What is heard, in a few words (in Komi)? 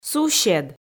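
"сусед", Komi, female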